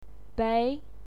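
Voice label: "bei", Mandarin Chinese, second tone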